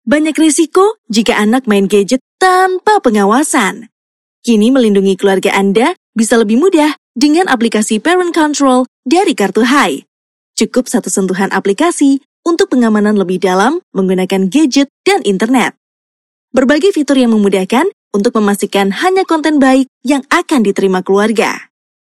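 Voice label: Casual Indonesian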